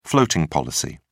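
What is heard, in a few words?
"floating policy", British English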